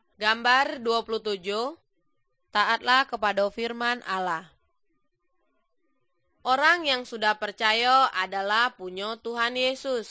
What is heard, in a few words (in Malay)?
good news malay jambi